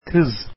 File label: girl, Turkish